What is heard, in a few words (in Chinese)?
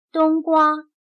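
"冬瓜" Mandarin Chinese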